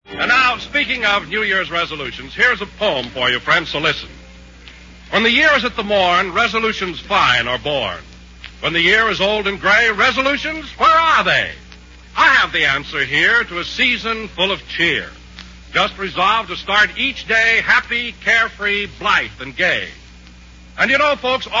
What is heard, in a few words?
Commercial Grape Nuts Flakes